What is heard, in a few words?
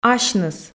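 "асьныс" Komi, female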